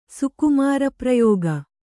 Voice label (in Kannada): "ಸುಕುಮಾರಪ್ರಯೋಗ", Kannada